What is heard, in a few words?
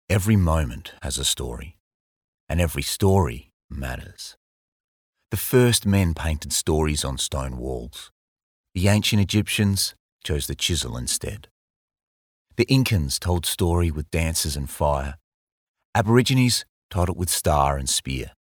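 Australian English, male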